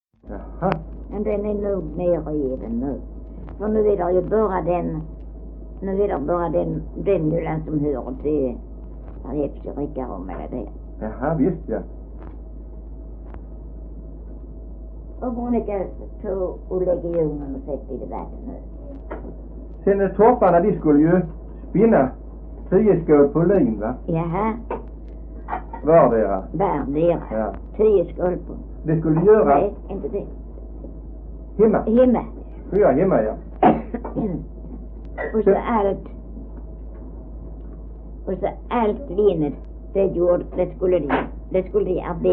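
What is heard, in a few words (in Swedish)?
Äsphult Skåne, dialekter svenska sagor; Folkminnen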